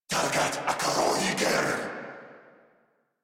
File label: Player Spotted Corrupted Grineer Male